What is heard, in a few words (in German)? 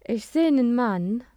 "mann", Tonakzente